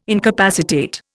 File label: "incapacitate", Indian